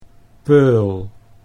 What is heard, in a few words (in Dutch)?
"peul", Dutch